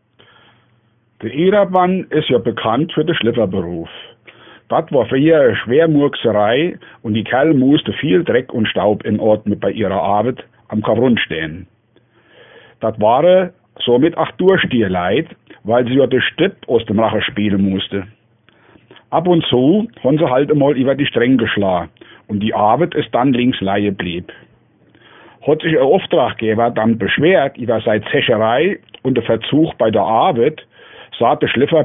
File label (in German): schleffer, O-Ton Hunsrück, Idar-Oberstein